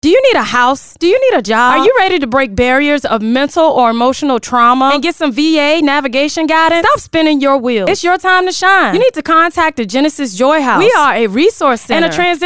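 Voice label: voice over; Genesis; JoyHouse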